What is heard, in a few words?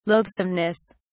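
"loathsomeness", English, British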